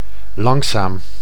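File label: "langzaam", Dutch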